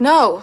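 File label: "no", female; Voice; expletives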